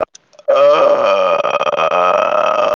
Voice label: voice message